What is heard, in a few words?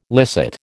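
"licit", American English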